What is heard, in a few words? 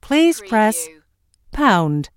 US female phone prompt Please press pound; lynne publishing